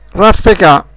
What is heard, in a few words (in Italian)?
"raffeca", dialetto; raffecà graffiare